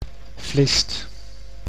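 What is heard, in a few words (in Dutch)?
"Vlist", Dutch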